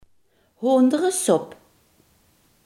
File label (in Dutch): "kippensoep", Limburgs